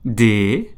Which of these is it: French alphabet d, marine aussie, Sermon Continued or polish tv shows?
French alphabet d